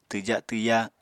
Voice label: "twja twya", Apatani